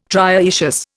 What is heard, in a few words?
"trioecious", Indian